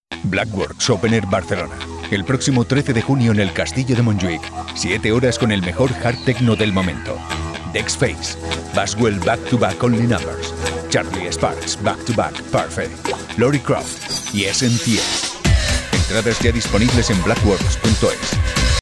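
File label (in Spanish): spanish radio imaging, Español voice actor, Una voz grave; requiera transmitir seguridad; Imágenes de radio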